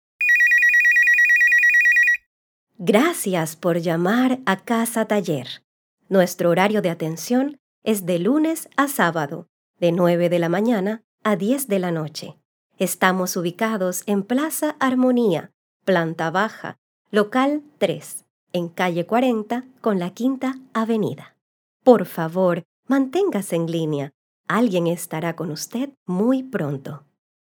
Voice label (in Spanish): contestadora espanol